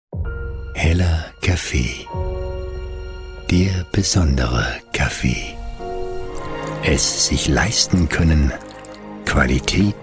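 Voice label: German male; lektoring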